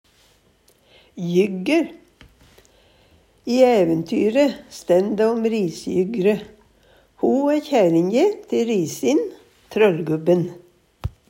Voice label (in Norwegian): jygger, Numedalsmål